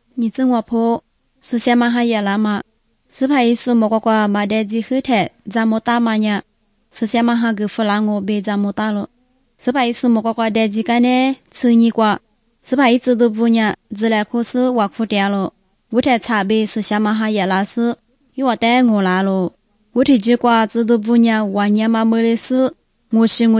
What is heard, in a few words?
good news lisu southern, drama